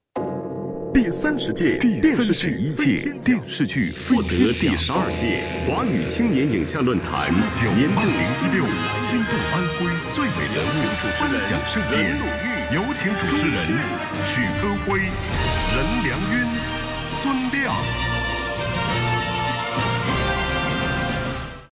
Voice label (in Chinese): 颁奖解说 开场白; 历届飞天奖颁奖盛典开场白